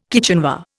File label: "kitchenware", Indian